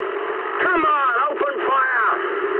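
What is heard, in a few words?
English attack position mood high; War Thunder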